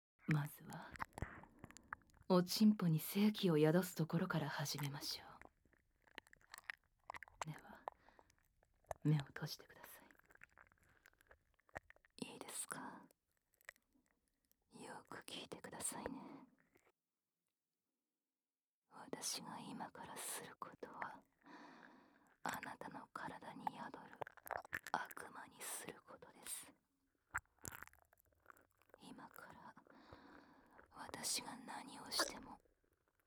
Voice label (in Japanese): 耳舐めされながら低音クールな呪詛師に事務的にディープキス・手コキ・乳首舐め・手マン・フェラ・素股で解呪される音声